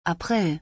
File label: April in German